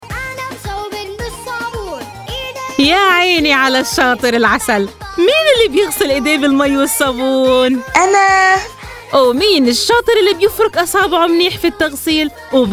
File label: radio PSA mother child dialogue; Gaza